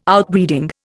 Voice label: "Outbreeding", Indian